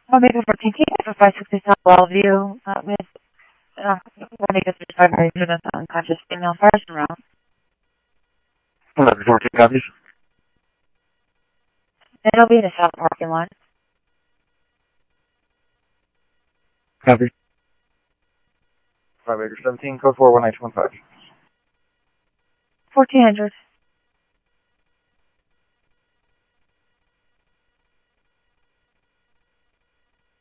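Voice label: Mesa Police Department simulcast, scanner audio; MESA PD 2 Centrl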